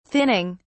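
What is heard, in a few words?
"thinning", British English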